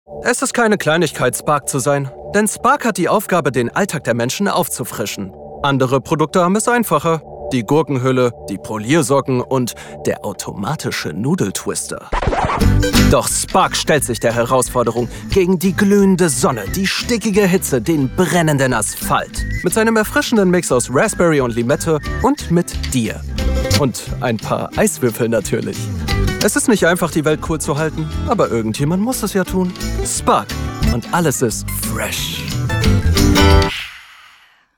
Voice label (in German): Werbung Quirlig, Auf Zack, Commercial, German